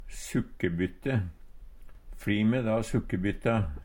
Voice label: sukkebytte, Numedalsmål; Substantiv hokjønn

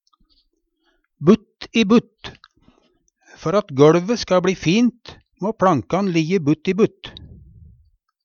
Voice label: "butt i butt", Numedalsmål

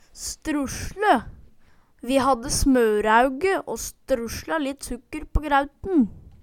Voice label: strusle, Numedalsmål, Vi hadde smøræuge å strusla litt sukker på græut

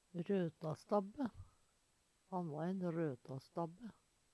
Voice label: rotastabbe, Numedalsmål; røtastabbe; Han va ein røtastabbe.; Substantiv hankjønn

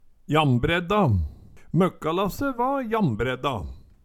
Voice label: jambredda, Numedalsmål; Ordet er ubøyeleg

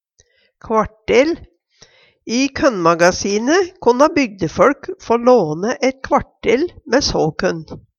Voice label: kvartel, Numedalsmål; kvartel = kvart tønne

